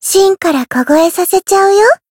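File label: Voice variable chant, Japanese; yukino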